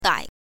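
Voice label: "dai", Mandarin Chinese, neutral tone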